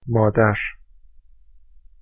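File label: "modar", Persian, male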